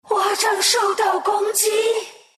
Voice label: underattack, zh; dota2